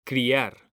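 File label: "criar", Spanish, male; mekanta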